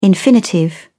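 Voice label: "infinitive", British English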